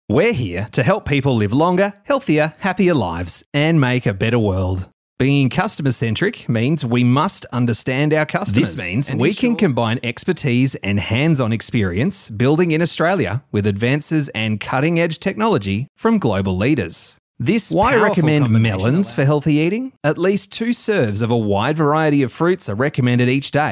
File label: Natural, voice overs